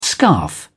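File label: "scarf", British English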